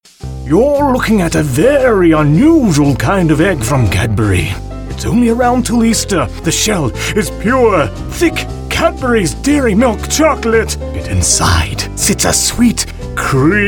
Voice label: Commercial

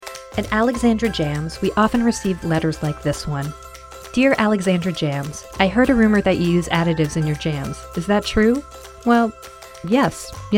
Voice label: Voice Actor; BigMouthVoices

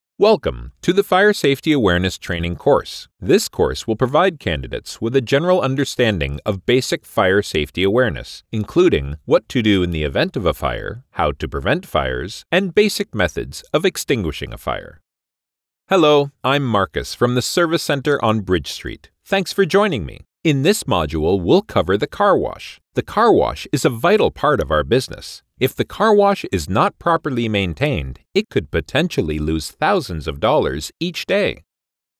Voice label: E-Learning, en-US

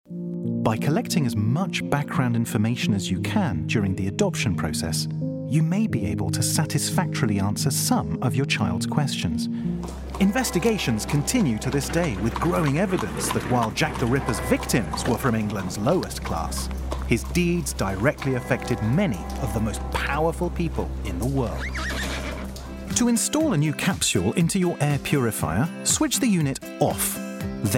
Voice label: Voice Actor; Big Mouth Voices

